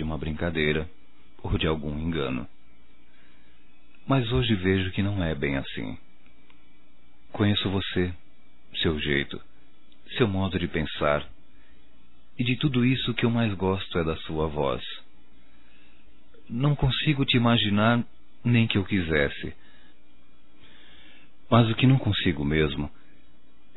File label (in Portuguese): voz Namoro por Telefone, masc